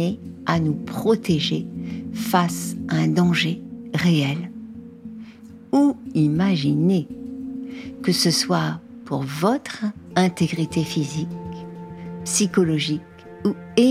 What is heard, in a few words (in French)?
relaxation guidee comprendre sa peur; espace zen